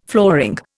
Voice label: "flooring", Indian